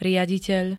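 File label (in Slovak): "riaditeľ", Slovak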